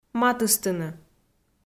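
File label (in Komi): "матыстыны", Komi-Zyrian, female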